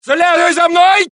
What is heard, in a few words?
Russian; mohaa